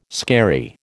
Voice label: "skerry", American

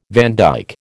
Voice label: "vandyke", American

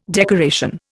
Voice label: "decoration", Indian

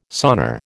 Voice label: "sonar", American

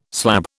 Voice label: "slab", British

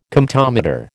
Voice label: "Comptometer", American

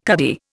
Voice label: "cuddy", Indian

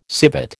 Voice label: "civet", American

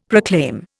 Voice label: "proclaim", Indian; Dictionary

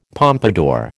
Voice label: "pompadour", American

